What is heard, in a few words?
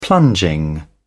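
"plunging", British English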